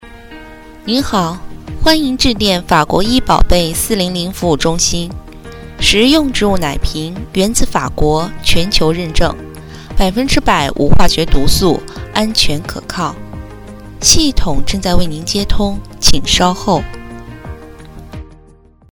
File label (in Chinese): 企业电话欢迎